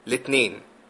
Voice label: monday, arabic eg

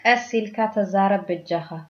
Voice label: slowly, tigrinya

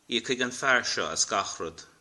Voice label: "pay", Irish

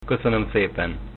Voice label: thanks, hungarian

